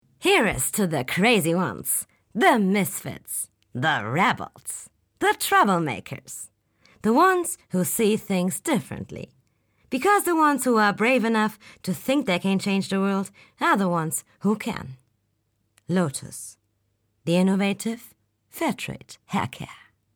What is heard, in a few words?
commercial; lotus